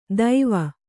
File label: "ದೈವ", Kannada